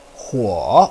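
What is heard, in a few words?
"huo3", Mandarin Chinese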